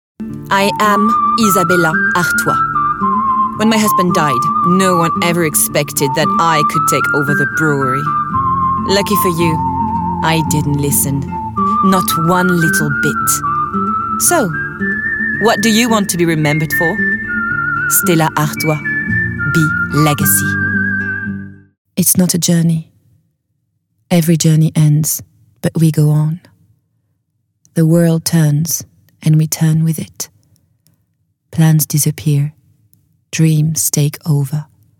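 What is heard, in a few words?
French accented English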